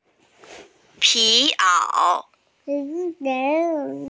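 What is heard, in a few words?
"fur coat", Mandarin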